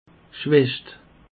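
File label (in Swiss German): "gschwaecht", Alsatian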